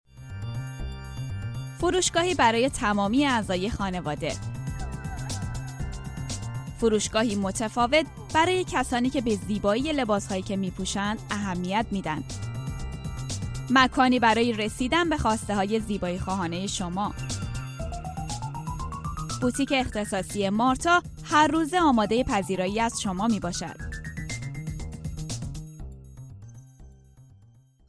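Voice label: Persian, Corporate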